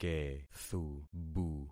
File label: "kethubu", concat syllables; Affixing experiment